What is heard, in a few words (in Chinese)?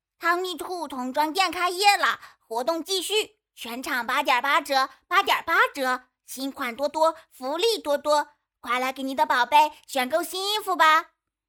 促销童音